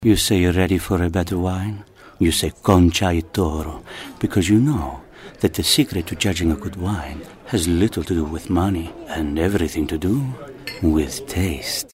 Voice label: italian

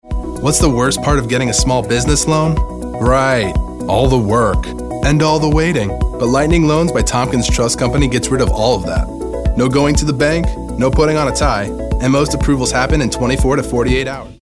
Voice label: friendly

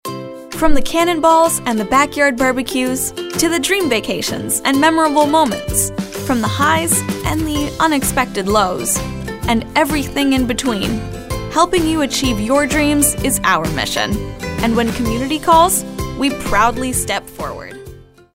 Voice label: girl next door

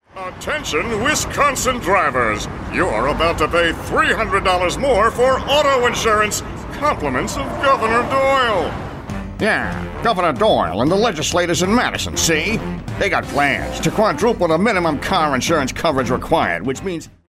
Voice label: cartoon

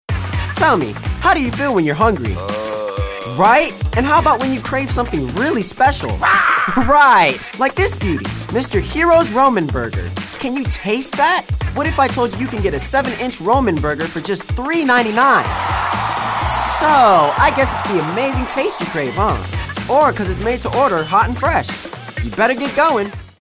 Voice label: high energy

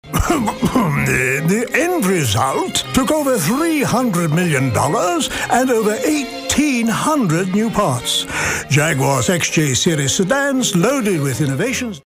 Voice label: pompous

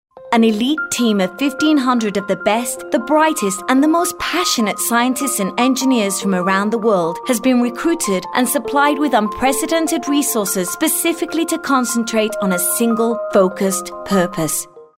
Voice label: informative

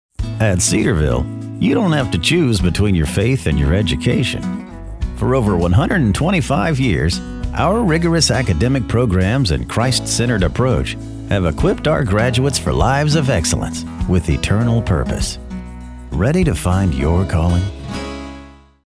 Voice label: Announcer Folksy

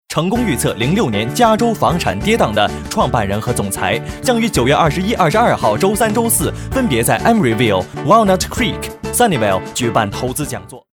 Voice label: Foreign Commercial

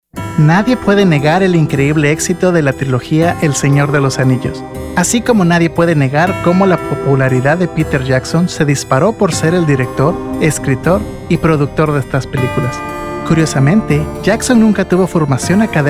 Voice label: Narration

